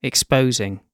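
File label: "exposing", British English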